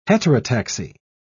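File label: "heterotaxy", English, British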